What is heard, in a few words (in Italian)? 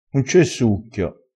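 "un c e succhio", dialetto crespinese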